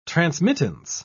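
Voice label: "transmittance", British English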